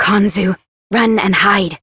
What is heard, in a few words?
Operator Voice, en; New War; Cetus Rescue